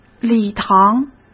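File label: "礼堂", Mandarin Chinese; chinese-flashcards; hsk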